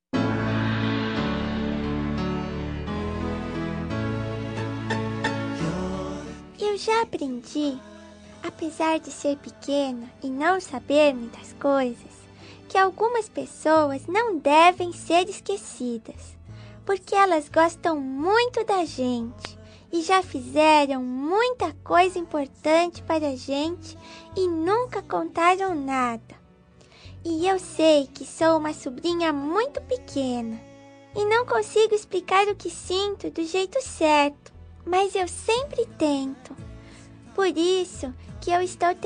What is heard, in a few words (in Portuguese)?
voz infantil tio a fem, Aniversário Voz Infantil Tia(o) – Voz Feminina; Telemensagens